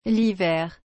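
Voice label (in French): "l'hiver", French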